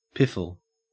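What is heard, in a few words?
"piffle", Australian English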